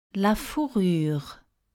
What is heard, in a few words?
"la fourrure", French; difficult